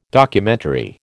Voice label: "Documentary", American